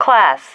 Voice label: "class", female